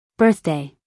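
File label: "birthday", American English, female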